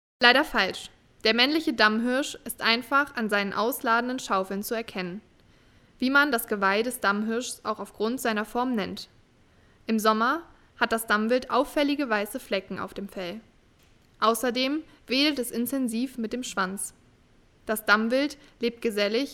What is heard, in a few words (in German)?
Erklaertext Damhirsch; wildtierkamera; kinder